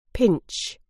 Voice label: "pinch", uk